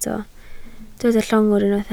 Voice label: "llongwr", Welsh

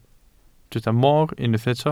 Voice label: "mor", Welsh

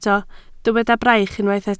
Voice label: "braich", Welsh

